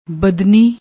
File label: "badnni", Punjabi